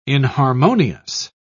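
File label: "inharmonious", British English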